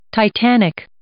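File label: "titanic", English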